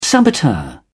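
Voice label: "saboteur", British English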